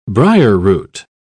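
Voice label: "briarroot", English, British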